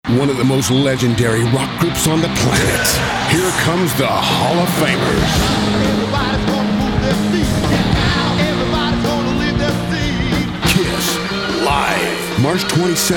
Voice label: Concert Promo